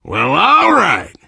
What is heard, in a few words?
safe spot ahead reaction, survivor voice biker